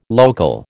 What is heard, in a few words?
"local", American